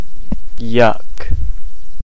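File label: "yuck", US English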